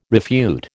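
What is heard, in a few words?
"refute", American English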